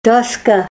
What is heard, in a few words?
board, Ninilchik Russian; dóska